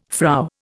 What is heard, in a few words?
"Frau", Indian